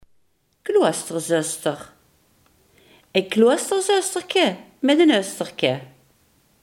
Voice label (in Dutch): "kloosterzuster", Limburgs